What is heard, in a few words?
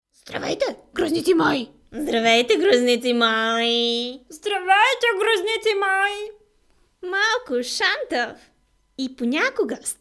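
Bulgarian, female, characters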